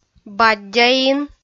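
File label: "баддяин", Komi, female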